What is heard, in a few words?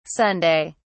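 "sunday", English, uk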